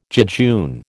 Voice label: "jejune", American